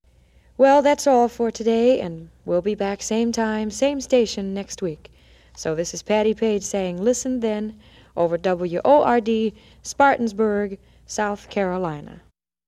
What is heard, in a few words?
Radio Commercials Radio Liner Station ID; WORD Spartansburg S.C.